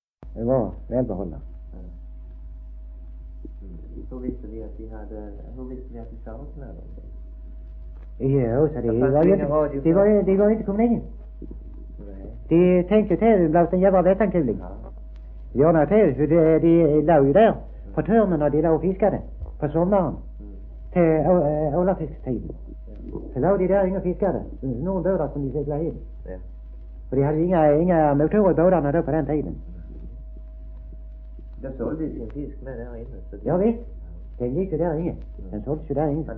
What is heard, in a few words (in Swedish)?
Degeberga SK, dialekter; Folkminnen; Svenska sagor